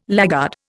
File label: "laggard", Indian